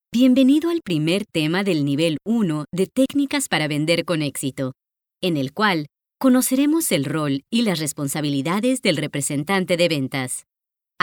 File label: female latam mexican comercial